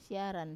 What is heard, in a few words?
"siaran" hundred, Wamesa